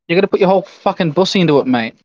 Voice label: voice message